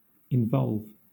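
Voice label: "involve", British English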